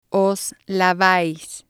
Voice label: "os lavais", spanish

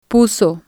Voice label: "puso", spanish

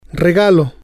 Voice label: "regalo", spanish

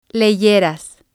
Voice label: "leyeras", spanish

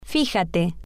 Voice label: "fijate", spanish

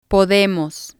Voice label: "podemos", spanish

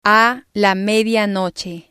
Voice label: "a La Medianoche", spanish

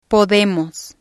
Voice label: "podemos", spanish

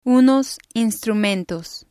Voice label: "unos instrumentos", spanish

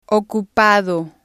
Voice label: "ocupado", spanish

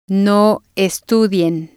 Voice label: "no estudien", spanish

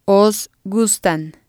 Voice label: "os gustan", spanish

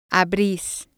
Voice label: "abris", spanish

